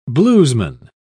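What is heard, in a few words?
"bluesman", British English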